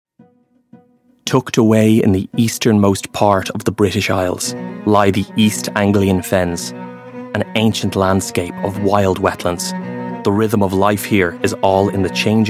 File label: irish voice documentary